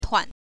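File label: "tuan", Mandarin Chinese, neutral tone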